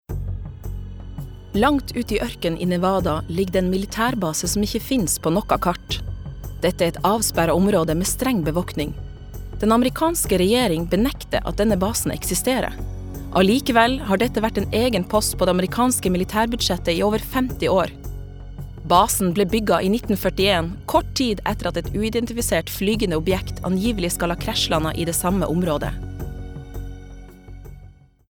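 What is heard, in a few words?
Norwegian documentary, kvinne; norsk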